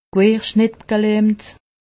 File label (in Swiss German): "querschnitt", alsace; medecine